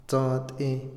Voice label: quemar, Otomí, hombre; INALI

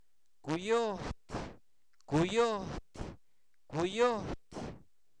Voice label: coyote, Zapoteco, santo domingo albarradas; animales; INALI

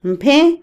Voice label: robar, Otomí, mujer; INALI

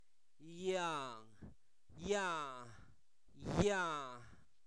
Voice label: Zapoteco Albarradas, santo domingo albarradas; olote; objetos inanimados

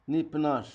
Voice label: aguamiel, Zapoteco, Magdalena Ocotlan; Alimento; INALI; Haspelmath

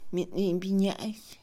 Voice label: Mixe, Mujer; adulterio; INALI

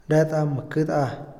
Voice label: quince, Otomí, hombre; Números; INALI

